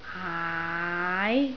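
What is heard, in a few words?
"aai2", Cantonese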